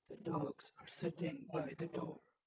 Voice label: calm eng Fearful generated; GAN for speech spectrogram